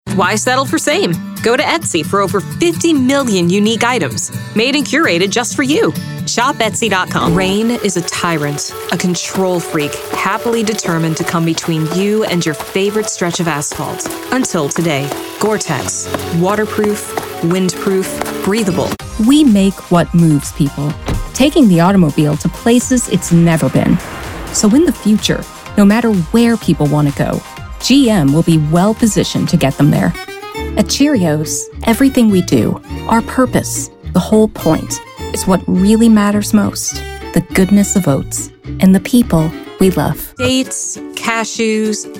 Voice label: Commercial, Women

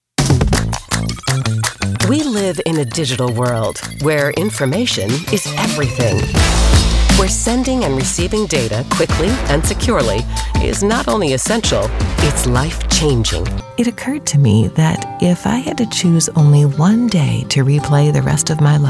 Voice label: Women Commercial

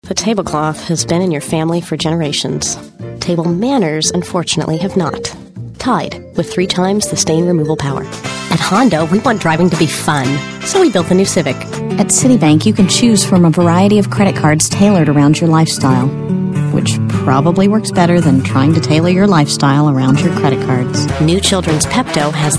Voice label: Women Commercial

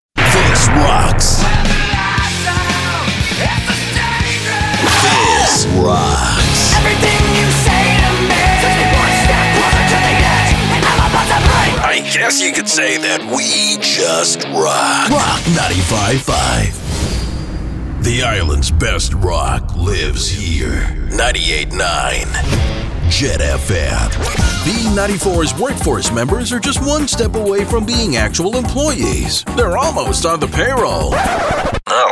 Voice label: Men Radio Imaging

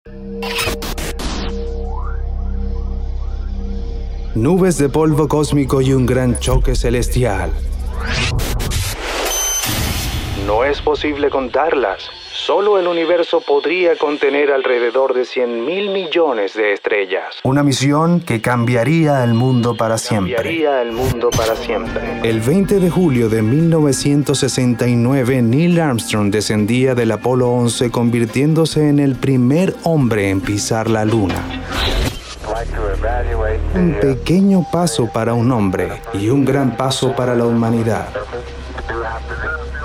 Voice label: Spanish

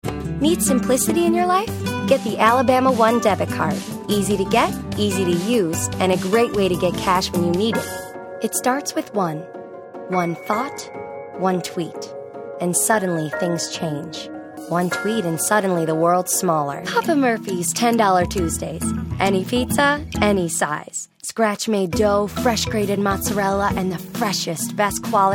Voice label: Women Commercial

